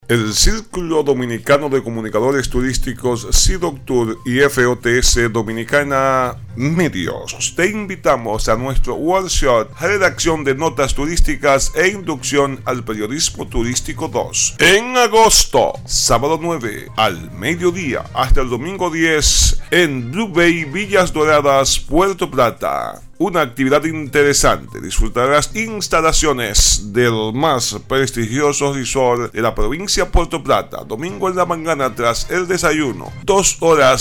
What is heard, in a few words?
INFOMERCIAL; WORK SHOP; BLUE BAY VILLAS DORADAS